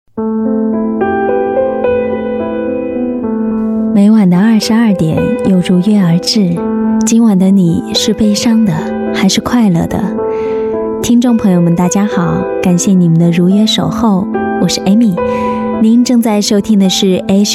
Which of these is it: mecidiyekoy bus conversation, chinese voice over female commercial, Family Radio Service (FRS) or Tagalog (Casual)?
chinese voice over female commercial